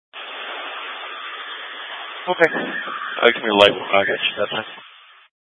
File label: Mutual Aid-Intersystem Interop B, scanner